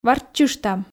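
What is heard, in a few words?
"варччыштам", Komi, female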